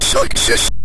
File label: "success", Synth Voice; Kenney's Sound Pack; Synth Voice 1